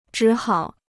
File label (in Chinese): "只好", Chinese